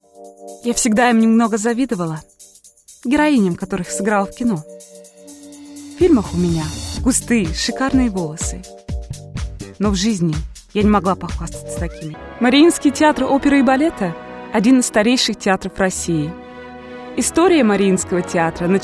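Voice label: Russian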